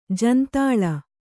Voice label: "ಜಂತಲ", Kannada

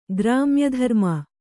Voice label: "ಗ್ರಾಮ್ಯಧರ್ಮ", Kannada

